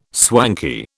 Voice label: "swanky", British

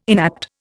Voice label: "inapt", Indian

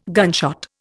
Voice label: "gunshot", Indian

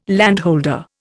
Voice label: "landholder", Indian

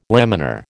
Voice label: "laminar", American

